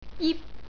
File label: "jip", Cantonese